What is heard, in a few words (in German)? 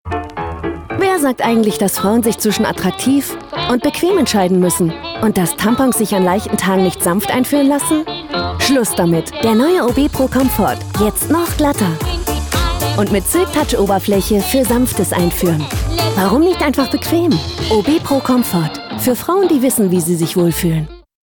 TV Spot, werbung; OB Pro Comfort